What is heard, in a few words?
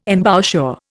"embouchure", Indian